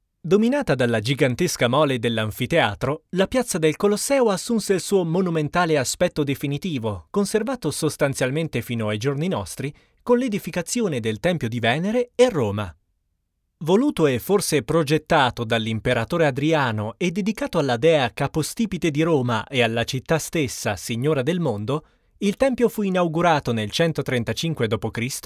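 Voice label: Italian, male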